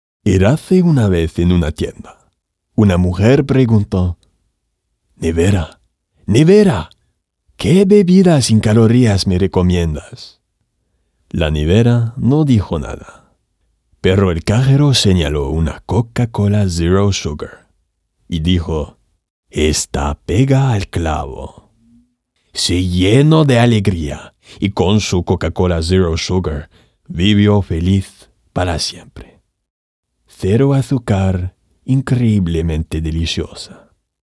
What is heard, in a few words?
spanish commercial